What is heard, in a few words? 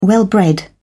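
"well bred", British English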